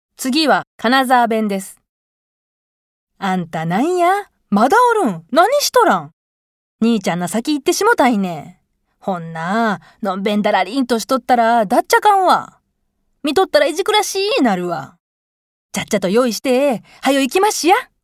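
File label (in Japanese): 方言, 金沢弁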